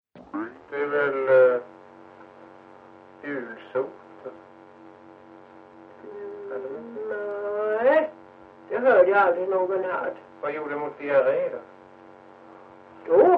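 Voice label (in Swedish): Ventlinge Öland, Svenska sagor, dialekter; Folkminnen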